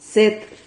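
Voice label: "cet", Spanish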